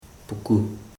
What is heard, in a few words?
drying bamboo shoots, koro